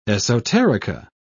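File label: "esoterica", British English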